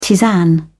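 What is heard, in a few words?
"tisane", British English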